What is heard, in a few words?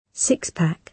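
"six-pack", British English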